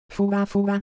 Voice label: Japanese, ideophones; Texture